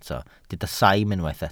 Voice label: "saim", Welsh

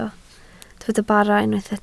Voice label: "bara", Welsh

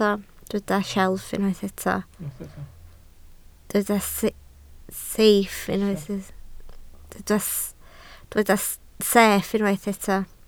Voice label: "sieff", Welsh

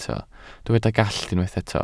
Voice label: "gallt", welsh

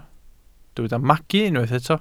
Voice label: "magu", Welsh

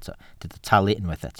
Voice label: "talu", Welsh

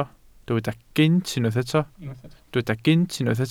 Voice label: "gynt", Welsh

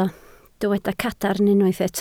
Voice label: "cadarn", Welsh

